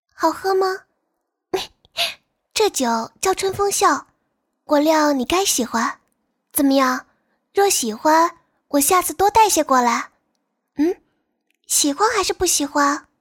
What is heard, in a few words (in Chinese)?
少御